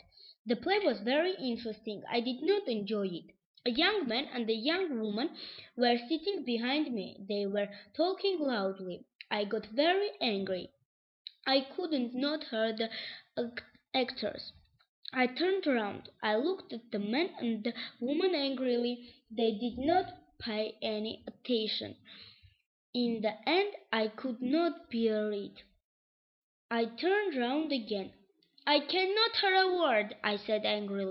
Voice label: A Private Conversation